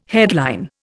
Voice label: "headline", Indian